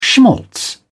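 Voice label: "schmaltz", British English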